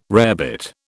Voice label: "rarebit", British